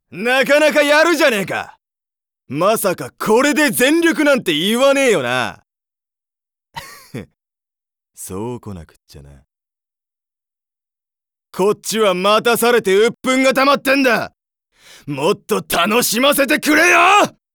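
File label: battle-type young man, Japanese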